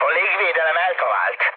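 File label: Hungarian damaged aa mood med, speech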